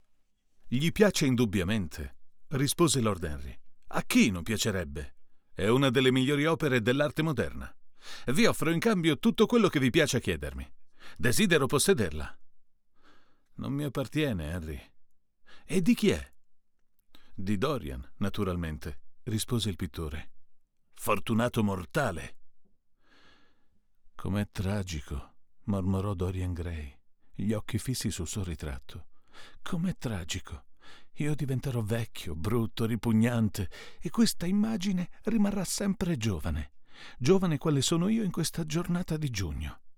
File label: Rode NTK RK12 TFK E88CC